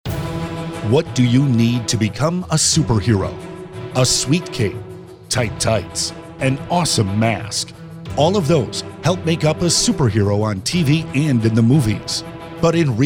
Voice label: ORGAN DONOR PSA